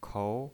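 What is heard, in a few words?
"kou", second tone, pinyin voice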